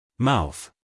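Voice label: "mouth", American English, male; everyone-can-use-english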